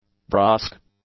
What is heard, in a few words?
"brusque", English; adjectives